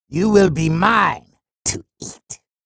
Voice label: chatter positive; sorlag; frag

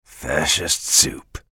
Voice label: chatter positive; bjblazkowicz; frag

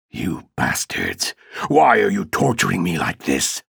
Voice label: chatter negative; ranger; respawn

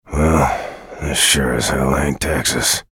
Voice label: chatter neutral; bj blazkowicz

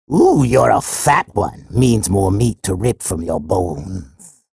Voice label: chatter positive; sorlag; frag

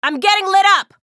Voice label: chatter negative; athena; respawn

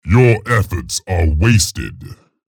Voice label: chatter positive; scalebearer; frag

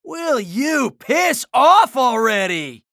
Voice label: chatter negative; anarki; respawn

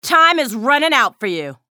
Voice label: chatter neutral; athena

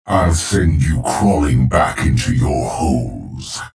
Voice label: chatter neutral; clutch

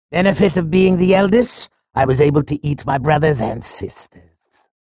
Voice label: chatter neutral; sorlag

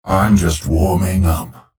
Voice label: chatter positive; clutch; frag

